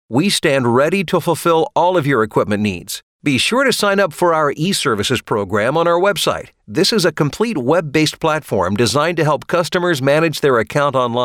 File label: Male